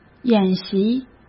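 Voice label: "演习", Mandarin Chinese; chinese-flashcards; hsk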